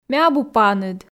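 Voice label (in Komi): ме абу паныд, Komi, female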